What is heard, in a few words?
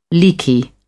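"leaky", English, British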